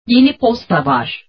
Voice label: Mail Turkish